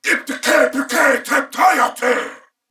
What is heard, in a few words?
Attack Male, en